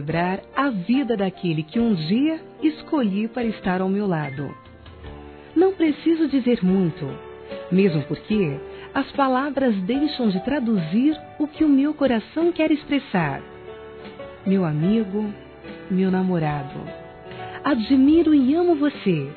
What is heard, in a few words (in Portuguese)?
aniv esposo f; Aniversários; ANIVERSARIO romantico; fem